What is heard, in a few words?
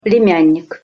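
"plemjannik", Russian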